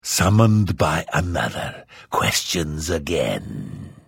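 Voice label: Hello, Vo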